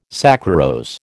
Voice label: "saccharose", American